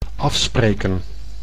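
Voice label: "afspreken", Dutch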